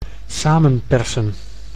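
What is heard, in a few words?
"samenpersen", Dutch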